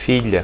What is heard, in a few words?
daughter, portuguese